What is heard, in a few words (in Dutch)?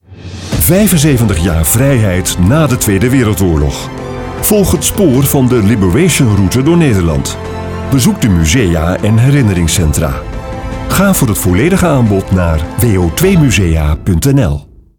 Museumcampagne Radiocommercial